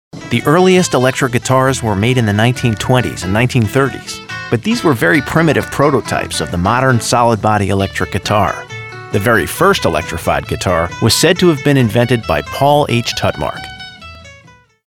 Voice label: IN-EPISODE NARRATION

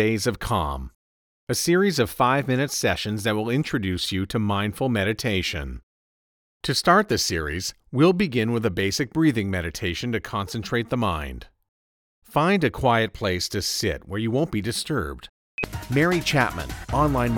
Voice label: Podcast VO

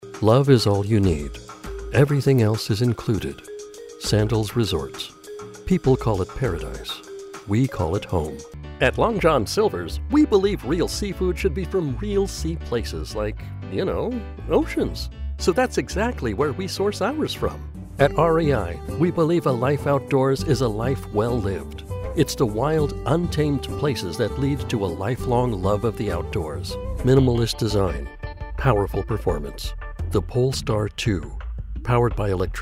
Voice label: Commercial, en-US

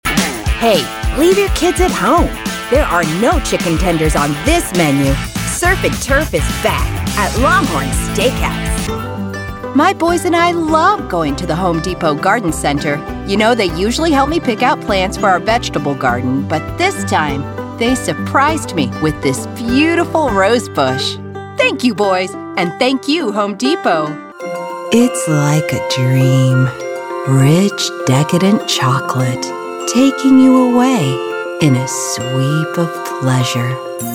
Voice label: Commercial

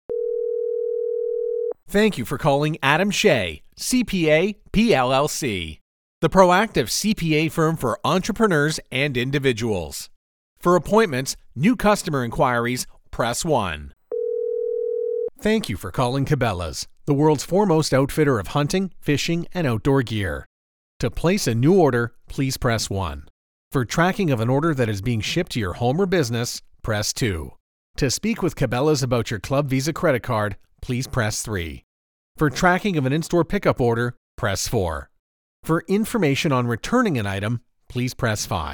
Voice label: Phone IVR

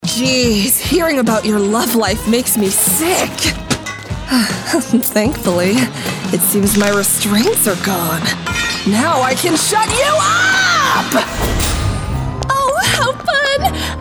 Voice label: Voice Actor; BigMouth Voices

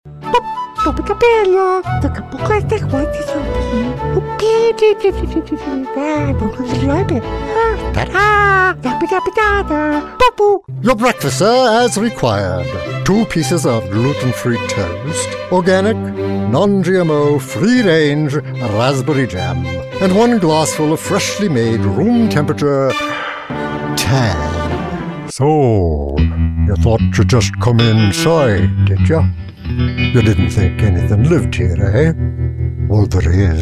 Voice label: Animation

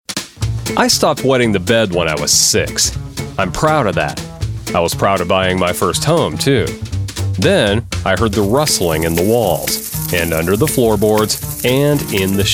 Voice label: Commercial, en-US

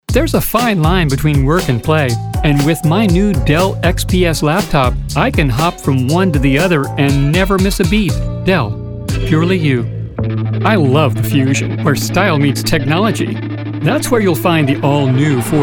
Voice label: Commercials

